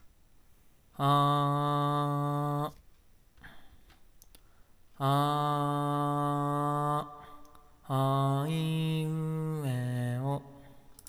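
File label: AG03 reverb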